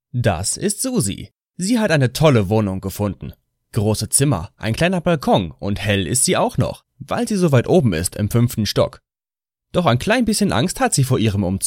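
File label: German, male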